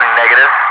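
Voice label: "negative", English speech, Wingman; DCS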